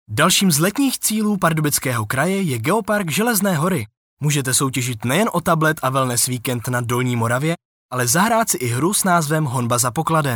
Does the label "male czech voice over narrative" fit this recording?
Yes